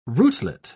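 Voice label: "rootlet", English, British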